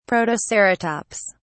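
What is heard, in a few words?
"protoceratops", British English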